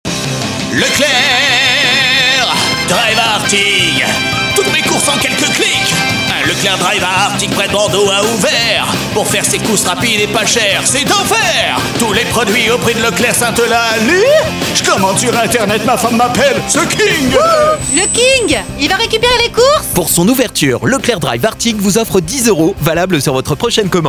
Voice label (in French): Pub radio; LECLERC DRIVE